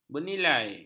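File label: "bernilai", Malay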